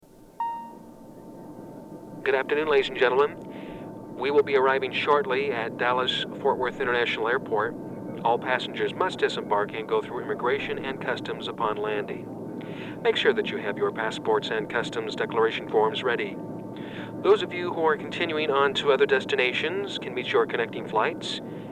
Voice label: travel english sample